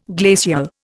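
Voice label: "glaciated", Indian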